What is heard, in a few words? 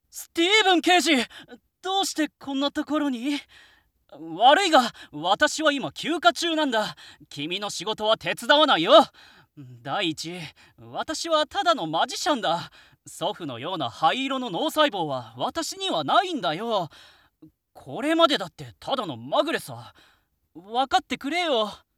male